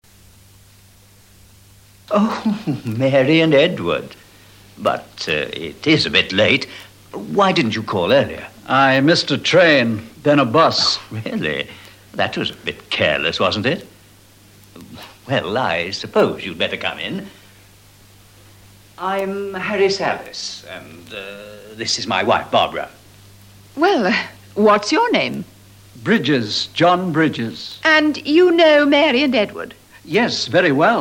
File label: dialog